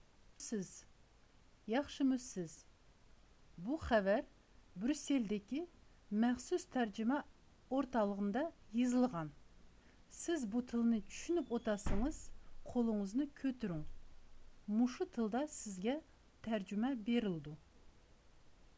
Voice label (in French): Ouighour